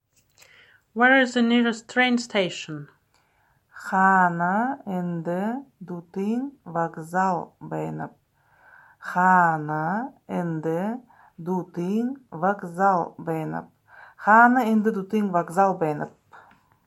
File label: Where is the nearest train station, Buryat